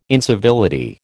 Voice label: "incivility", American